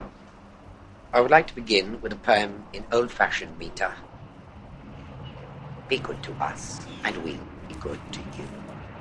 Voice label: Dialogue Denoiser Bearbeitet